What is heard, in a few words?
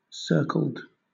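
"circled", English, British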